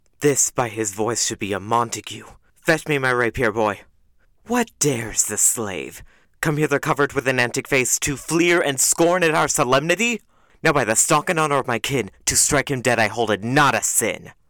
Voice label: Brooding, villain; Romeo and Juliet; Tybalt